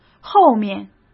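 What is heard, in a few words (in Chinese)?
"后面", Mandarin Chinese; hsk; chinese-flashcards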